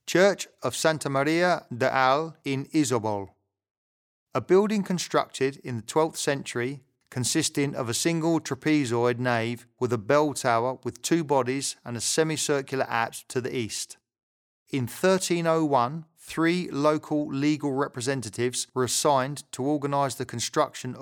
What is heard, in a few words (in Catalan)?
locucio angles